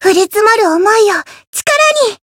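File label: Voice variable chant, Japanese; yukino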